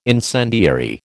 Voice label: "incendiary", American